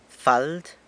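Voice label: "fald", San Lucas Quiaviní Zapotec; skirt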